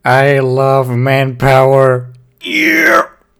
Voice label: rage; tf2; freak fortress 2